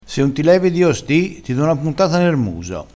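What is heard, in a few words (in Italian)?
"puntata", dialettale, crespinese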